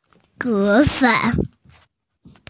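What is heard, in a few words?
"imitate", Mandarin, hearing-impaired; mo2fang3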